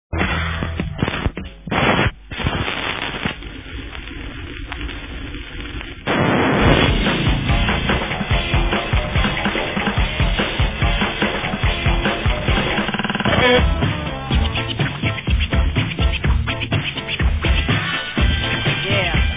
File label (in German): trailer